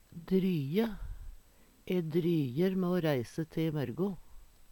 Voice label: "dryje", Numedalsmål; Verb

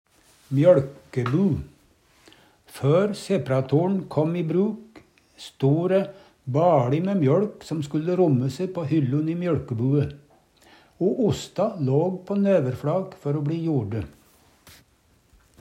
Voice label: mjoLkebu, Numedalsmål

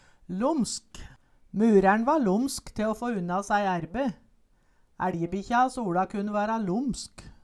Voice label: lomsk, Numedalsmål

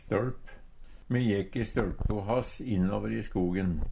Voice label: støLp, Numedalsmål

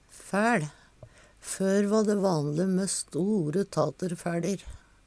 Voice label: fæL, Numedalsmål

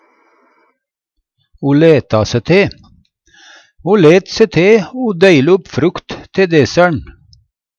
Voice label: o leta se te, Numedalsmål; Ho let se te o dele upp frukt te desser'n.; Uttrykk; by seg til t.d. å hjelpa

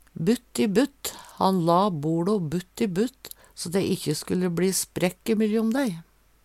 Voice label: "butt i butt", Numedalsmål

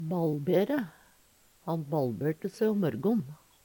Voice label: "balbere", Numedalsmål; Verb

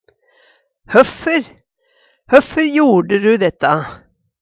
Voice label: "høffør", Numedalsmål; Høffør jorde du dætta?

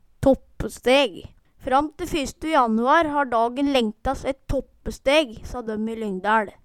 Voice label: tåppesteg, Numedalsmål

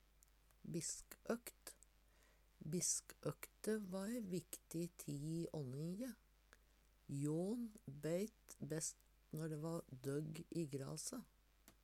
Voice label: "biskøkt", Numedalsmål; Biskøkte va ei viktig økt ånningstie. Jå`n beit best når dæ va døgg i grase.

